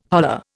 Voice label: "holler", Indian